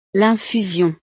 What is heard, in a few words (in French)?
"l infusion", frances; snacks